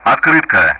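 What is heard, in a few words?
Speech Sound Russian; Common Player Callsign Otkrytka; DCS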